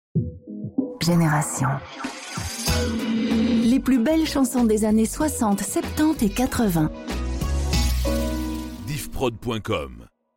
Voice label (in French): Jingle Les plus belles chansons